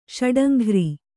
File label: "ಷಡಂಘ್ರಿ", Kannada